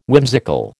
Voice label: "whimsical", American